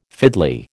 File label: "fiddly", American